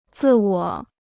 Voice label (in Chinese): "自我", Chinese